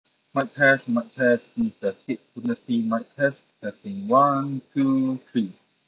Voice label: Noisy; Taotronics SoundLiberty 88